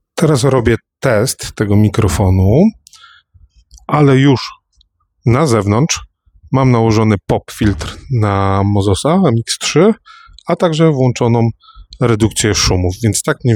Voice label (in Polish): próbka, redukcja szumów, pop filtr; Mozos MX3 Dual